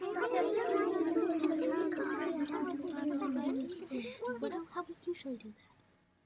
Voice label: Female Babble